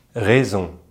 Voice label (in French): "raison", French